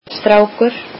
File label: "boy", Icelandic